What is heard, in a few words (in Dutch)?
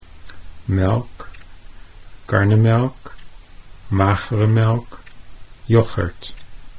"melk karnemelk", Dutch